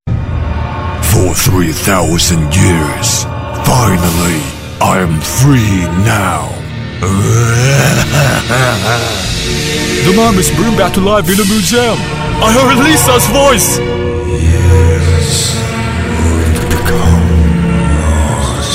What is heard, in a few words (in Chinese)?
男英 动画 角色 一人三角色; 外语; 英语